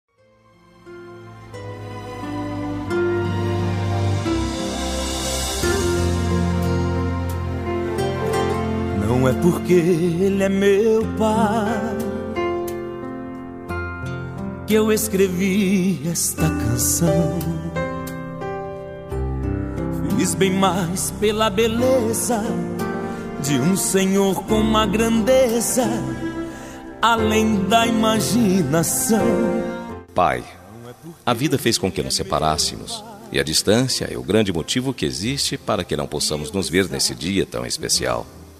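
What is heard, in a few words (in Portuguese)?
birthday PAI DISTANTE male, telemensagem; aniversario pai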